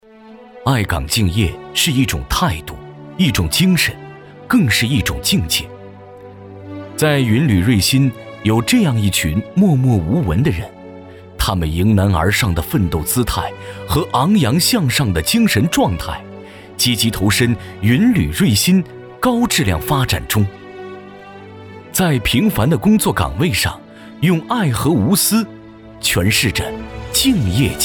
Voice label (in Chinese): 男 专题 企业; 云铝润鑫